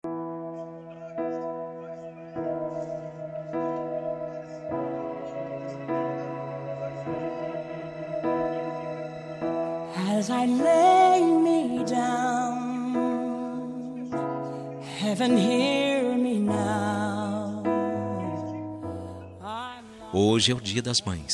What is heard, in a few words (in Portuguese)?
distante masc, Voz Masculina; dia das maes para mae distante voz masculina